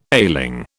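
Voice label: "ailing", British English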